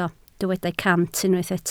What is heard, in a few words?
"cant", Welsh